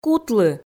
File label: "кутлы", Komi